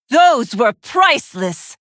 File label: Female Pandaren Attack